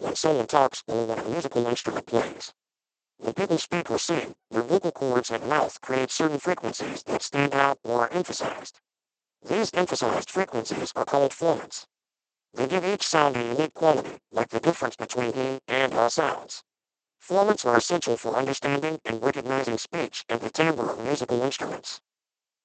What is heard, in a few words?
deep voice; speex